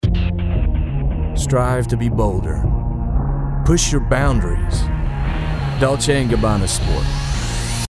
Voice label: Commercial; Dolce And Gabbana